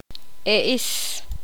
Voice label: poqomam; diente